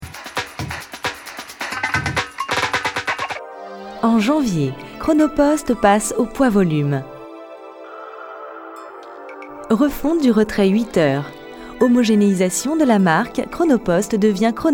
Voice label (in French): institutionnel; chronoposte Iinternationnal